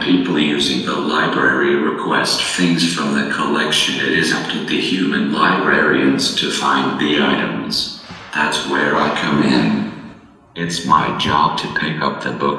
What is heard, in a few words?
robot speaking